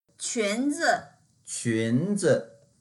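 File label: "qun2zi", Mandarin Chinese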